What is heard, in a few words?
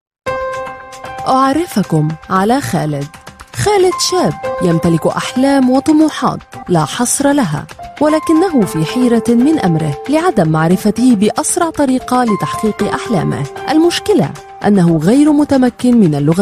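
Arabic Corporate Female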